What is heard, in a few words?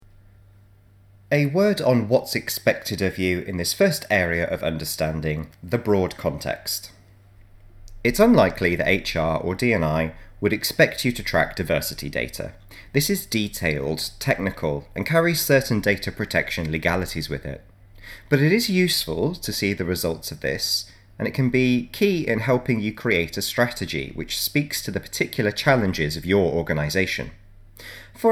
Narration; Measuring Impact